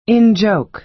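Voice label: "in-joke", American English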